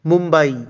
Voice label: Mumbai Pronunciation; india